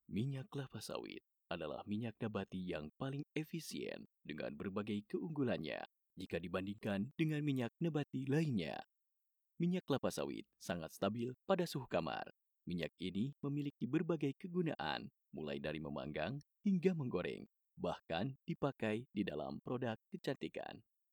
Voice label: Formal Indonesia

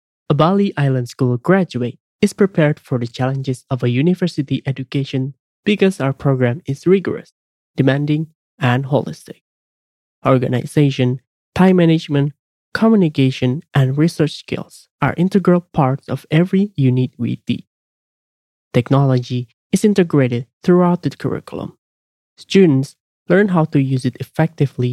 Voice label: FORMAL ENG

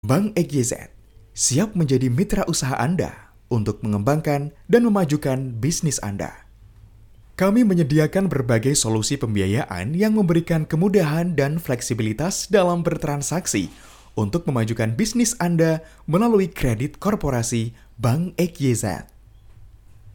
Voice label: FORMAL INDONESIA

